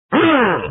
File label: zombie critical